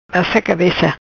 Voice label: "Bassa Cabeca", Macanese